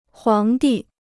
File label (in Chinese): "皇帝", Chinese